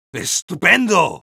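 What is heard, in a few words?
positive vocalization, Spanish; Medic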